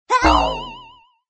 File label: emotion surprised; wanNingTaiQiu